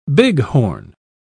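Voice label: "bighorn", British English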